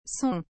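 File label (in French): "son", French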